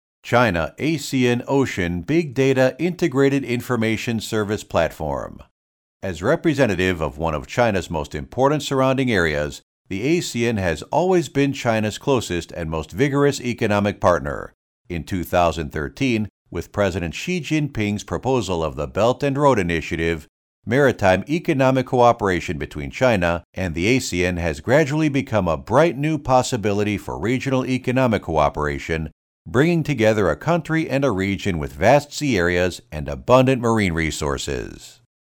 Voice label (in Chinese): 海洋大数据平台宣传片; 中国-东盟海洋大数据平台宣传片